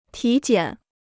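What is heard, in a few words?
"体检", Chinese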